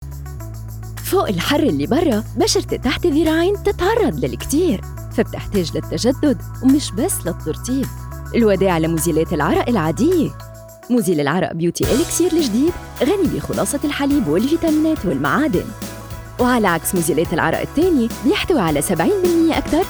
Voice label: Lebanese commercial; lebanese voiceover